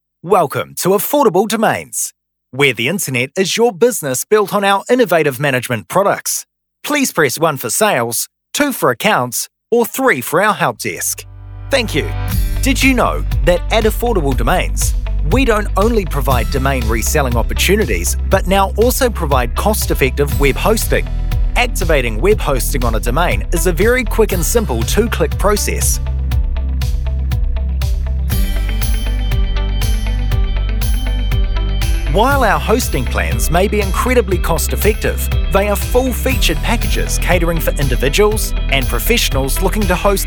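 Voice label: New Zealand PHONE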